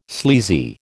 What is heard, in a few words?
"sleazy", American